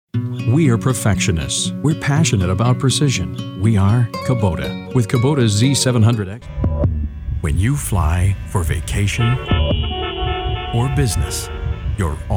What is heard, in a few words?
Commercials